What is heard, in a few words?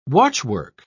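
"watchwork", British English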